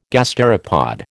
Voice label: "gasteropod", American